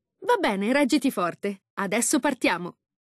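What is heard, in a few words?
loading zone, voiceovers, Italian; retaildemo; Windows; Mixed Reality Learning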